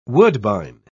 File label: "woodbine", pronounce